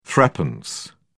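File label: "threepence", British English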